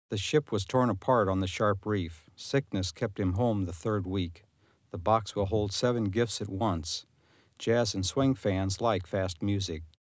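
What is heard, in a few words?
English, male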